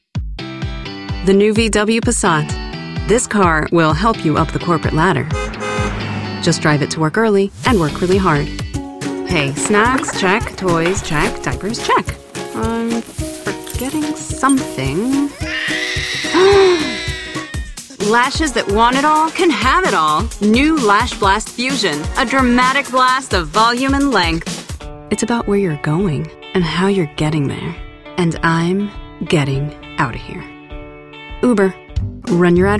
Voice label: English American commercial

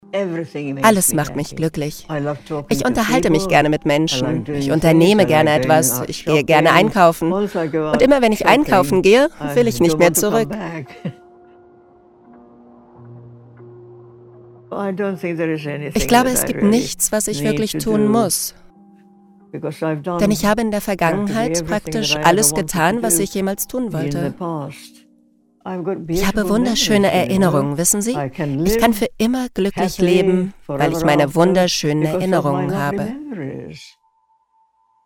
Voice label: german documentaries

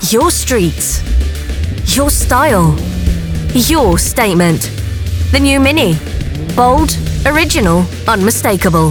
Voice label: english british automotive, british voice actors

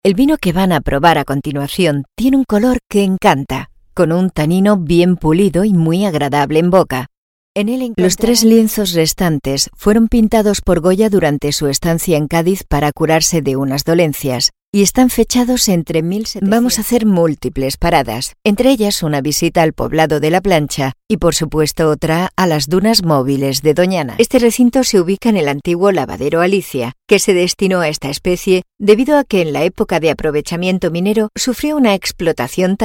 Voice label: spanish audio guides

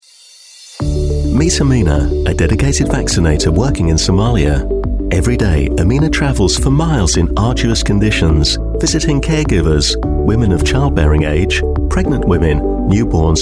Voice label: english british corporate videos

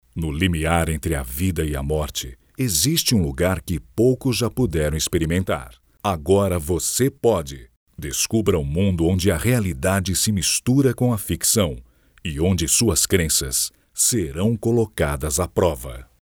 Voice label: portuguese brazilian movie trailers

